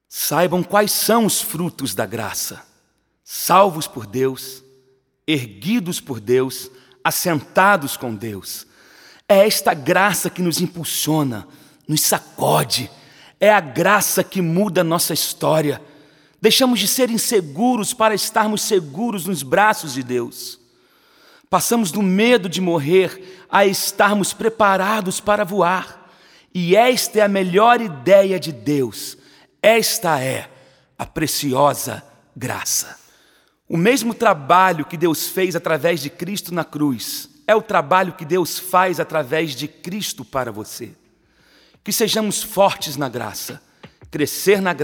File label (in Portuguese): Narração